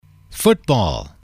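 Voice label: american Football, english, male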